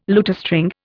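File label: "lutestring", Indian English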